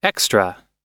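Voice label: "extra", US English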